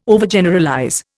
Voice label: "Overgeneralize", Indian

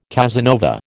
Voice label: "Casanova", American

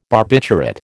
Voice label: "barbiturate", American

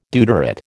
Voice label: "deuterate", American

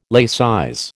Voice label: "laicize", American

